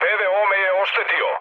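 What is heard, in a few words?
Serbian damaged aa mood med, speech; War Thunder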